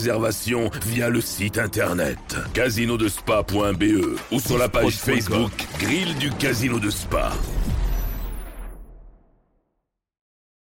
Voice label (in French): Spot Halloween, publicite; Grill du Casino SPA; Halloween 2024